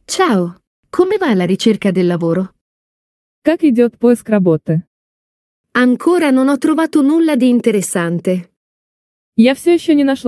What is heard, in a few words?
dialogo it ru; МИНИ-ДИАЛОГИ на итальянском языке; Итальянский на слух